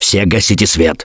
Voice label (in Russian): Kill That Light, left4dead2 russian, Survivor Voice; Gambler